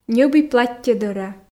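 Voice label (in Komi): ньӧби платтьо дӧра, Komi, female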